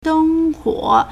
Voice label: "deng1 huo3", Chinese